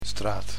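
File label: "straat", Dutch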